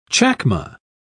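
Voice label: "chacma", British English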